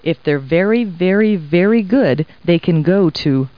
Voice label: people, kid